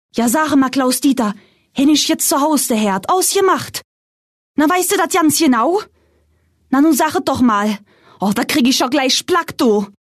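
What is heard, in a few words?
German, female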